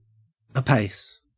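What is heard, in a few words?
"apace", Australian English; pronunciations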